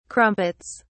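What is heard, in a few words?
"crumpets", British English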